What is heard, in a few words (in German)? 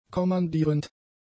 "kommandierend", German; adjectives